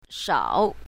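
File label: "shao3", pinyin, south, female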